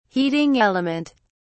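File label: "heating element", British English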